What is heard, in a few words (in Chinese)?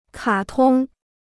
"卡通", Chinese